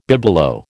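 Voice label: "bibelot", American English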